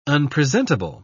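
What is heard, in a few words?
"unpresentable", British English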